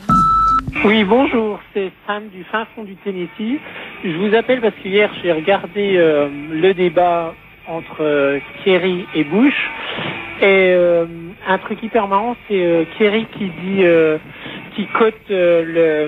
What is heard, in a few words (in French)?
repondeur; Elections US